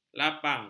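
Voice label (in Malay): "lapang", Malay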